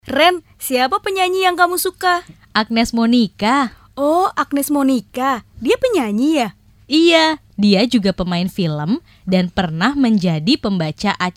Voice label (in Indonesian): PERCAKAPAN